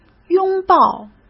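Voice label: "拥抱", Mandarin Chinese; chinese-flashcards; hsk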